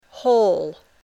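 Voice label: "whole", English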